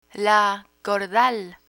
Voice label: "cordal", Spanish; dental vocabulary; learn spanish

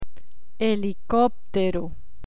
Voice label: "helicoptero", Spanish

